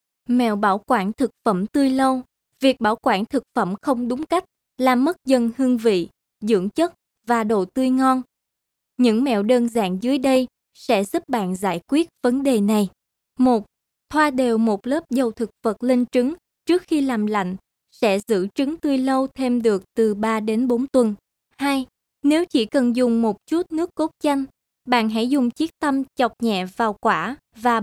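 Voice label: Vietnamese, female; vietnamese voice over talent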